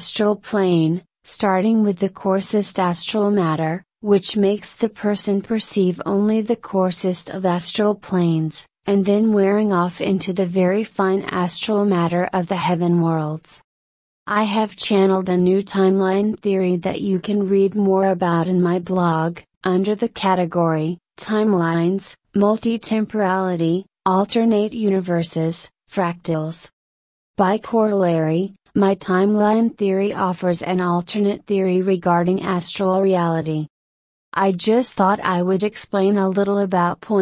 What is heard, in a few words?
substitute voice rate slow pitch medium